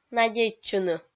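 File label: "надейтчыны", Komi-Zyrian, female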